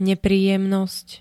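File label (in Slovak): "nepríjemnosť", Slovak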